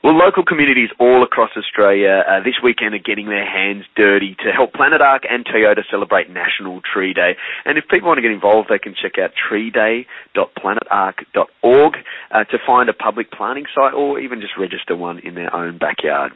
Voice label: radio grab